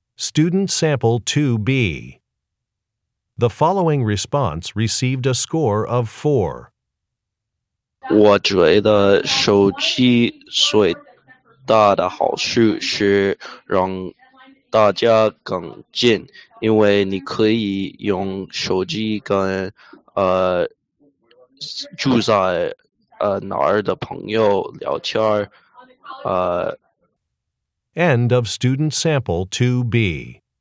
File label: chinese conversation; ap chinese language and culture